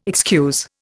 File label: "excuse", Indian